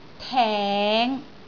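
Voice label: "teng5", Cantonese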